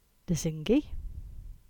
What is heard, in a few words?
"Lesingey" Cornish